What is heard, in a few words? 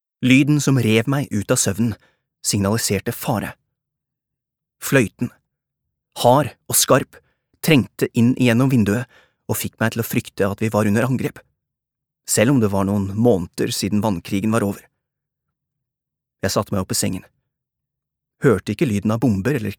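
Norwegian audiobook; norsk; mann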